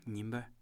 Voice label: "daytime", kham